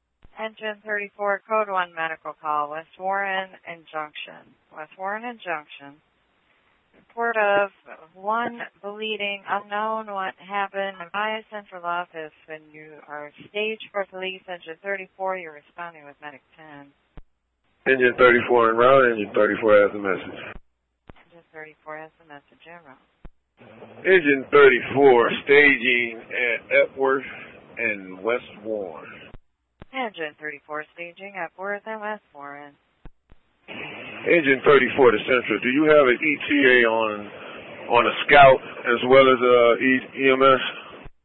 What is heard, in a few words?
Dispatch Audio; scanner; Engine34 Shooting Full; fatal shooting